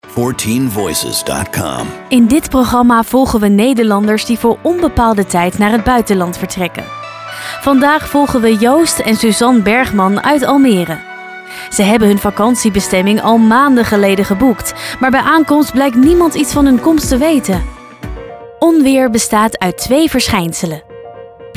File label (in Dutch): Narratief Corporate; 14-Voices